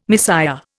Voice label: "misère", Indian